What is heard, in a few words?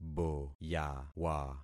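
"boyawa", concatenated syllables; Affixing experiment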